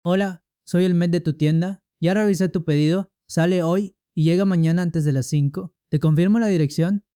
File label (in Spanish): narrador joven